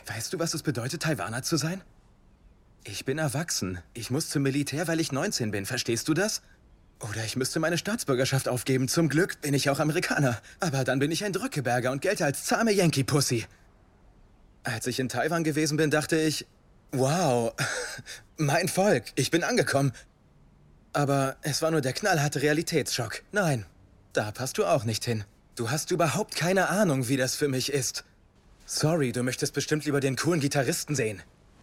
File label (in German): Synchron Beziehungsstreit